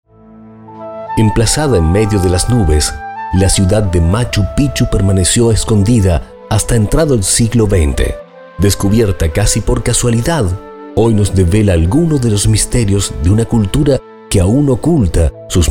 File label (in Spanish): documentales; demo